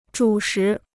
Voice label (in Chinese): "主食", Chinese